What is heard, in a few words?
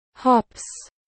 jump or hop dog command in german